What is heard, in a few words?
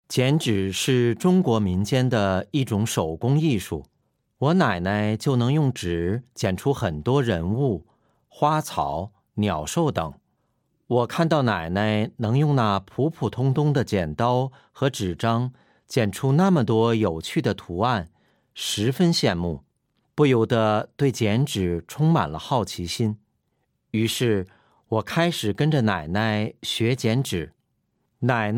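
Chinese E-learning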